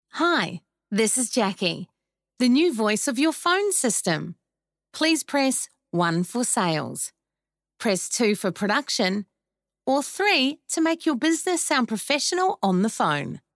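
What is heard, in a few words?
New Zealand Female Voice Talent Phone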